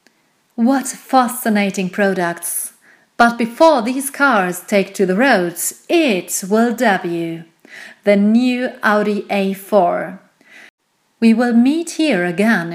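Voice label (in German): British English Kommentar Dynamisch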